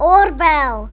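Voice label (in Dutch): "oorbel" Dutch, earring; tdegames; ktuberling; nl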